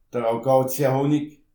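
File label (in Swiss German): "akazie", Alsatian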